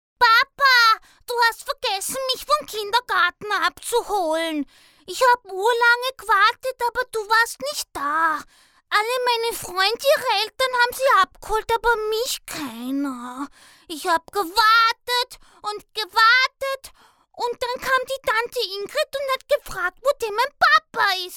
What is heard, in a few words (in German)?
kleinkind